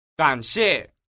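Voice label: "gan xie", Chinese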